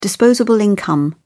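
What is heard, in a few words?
"disposable income", British English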